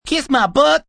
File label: Kiss My Butt, Attitude, Male; VoiceBox